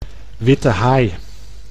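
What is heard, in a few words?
"witte haai", Dutch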